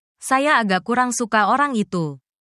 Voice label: indonesia, negative phrases